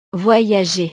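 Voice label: "voyager", French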